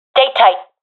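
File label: request stick together, professional female; vo; Counter Strike Model Pack; CS:GO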